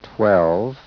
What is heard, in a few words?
SPEECH ENGLISH